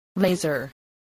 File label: "laser", English